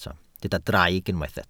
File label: "draig", Welsh